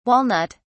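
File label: "walnut (s)", English, British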